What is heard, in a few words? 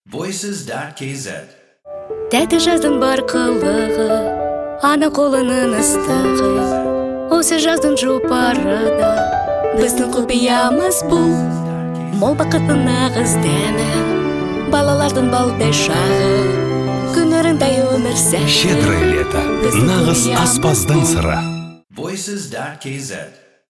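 Kazakh vocal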